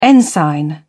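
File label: "ensign", British English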